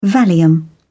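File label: "valium", British English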